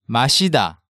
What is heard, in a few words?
"Masida", Hangeul sounds; DigitalSoju